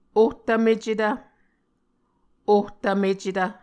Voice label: "ohtvmecetv", Muscogee

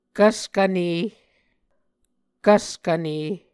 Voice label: "kvskvne", Muscogee

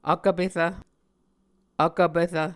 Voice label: "vkvperv", Muscogee

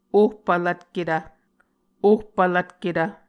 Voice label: "ohpvlvtketv", Muscogee

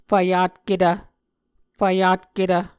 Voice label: "fvyatketv", muscogee

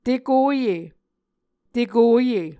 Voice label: "tekoye", Muscogee

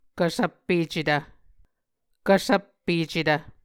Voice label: "kvsvppecetv", Muscogee

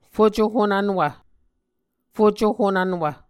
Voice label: "fucohonvnwv", muscogee

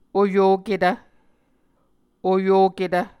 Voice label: "oyoketv", Muscogee

